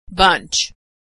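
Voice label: "bunch", American English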